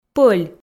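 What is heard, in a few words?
"пӧль", Komi, female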